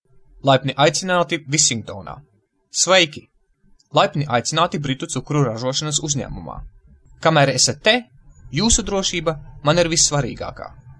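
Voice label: Latvian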